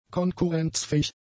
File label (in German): "konkurrenzfähig", German; adjectives